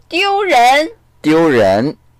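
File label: "diu1ren2", Mandarin Chinese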